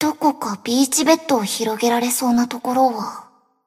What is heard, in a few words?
Cafe Monolog; BA; Iori Swimsuit